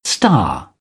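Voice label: "star", British English; AmericanBritish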